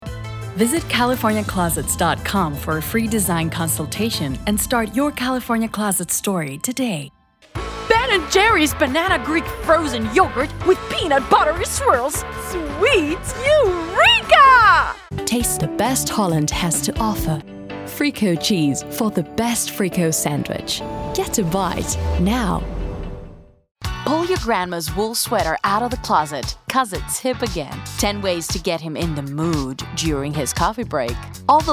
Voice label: english us and canada female commercial